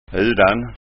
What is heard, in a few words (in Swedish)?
"udan", skanska ord